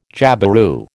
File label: "jabiru", American